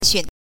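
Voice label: "xun", neutral tone, Mandarin Chinese pinyin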